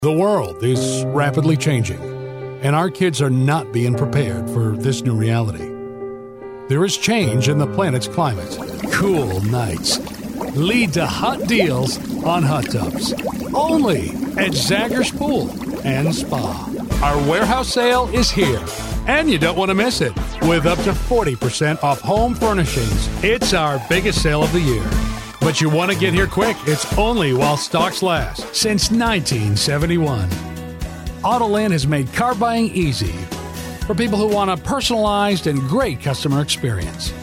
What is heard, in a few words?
Commercial